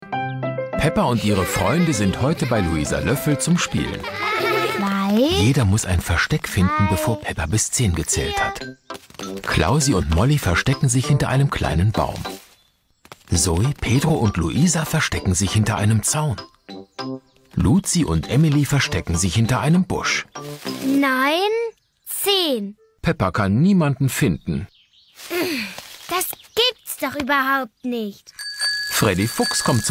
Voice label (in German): TV Kinder Erzaehler; Peppa; KIKA